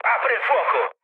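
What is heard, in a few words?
Italian attack position mood low, speech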